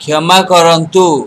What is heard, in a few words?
excuse me, odia